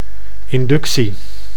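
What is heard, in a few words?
"inductie", Dutch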